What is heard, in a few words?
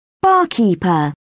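"barkeeper", British English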